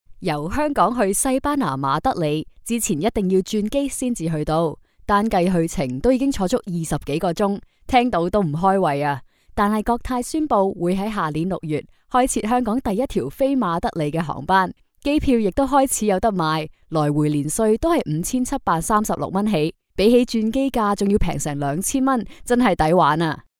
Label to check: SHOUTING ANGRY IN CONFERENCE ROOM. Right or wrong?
Wrong